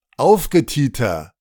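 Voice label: "aufgeteeter", German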